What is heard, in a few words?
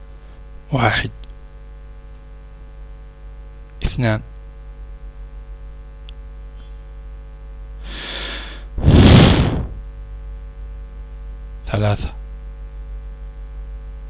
1 to 6 arabic noise; 16000 mono; auditok